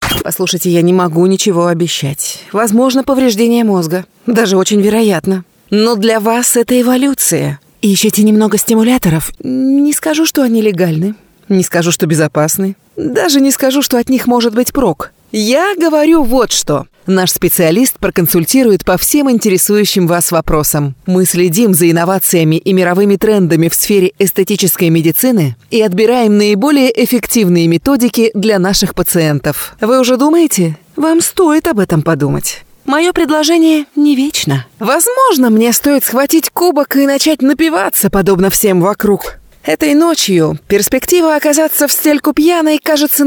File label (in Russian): Diktor zhenskij golos